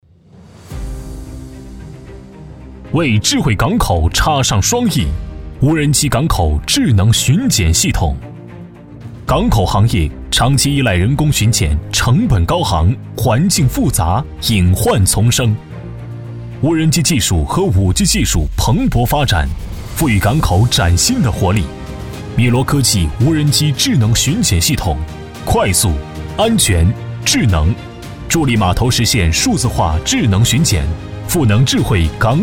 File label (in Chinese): 男 宣传 浑厚洪亮